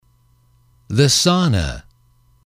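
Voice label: "the sauna", English, male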